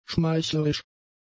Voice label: "schmeichlerisch", German; adjectives